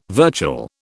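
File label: "virtual", British English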